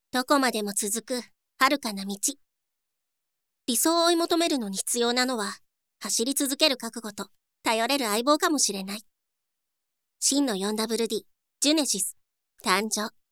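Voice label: girl narration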